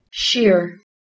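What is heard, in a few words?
"shear", English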